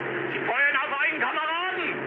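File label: German player friendly fire mood low, speech; War Thunder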